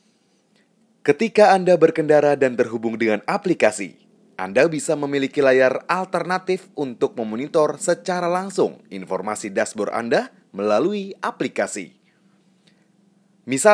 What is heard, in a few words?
Bahasa Indonesia Character